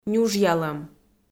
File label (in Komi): "нюжъялӧм", Komi